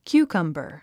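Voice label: "cucumber", English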